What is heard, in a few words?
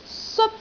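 "seot1", Cantonese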